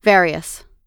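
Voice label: "various", American English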